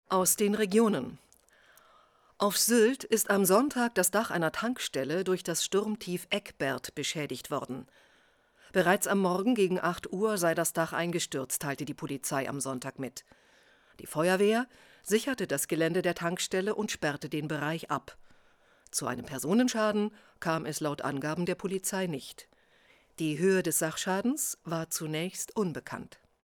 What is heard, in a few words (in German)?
NACHRICHTEN REGIONAL; SYLT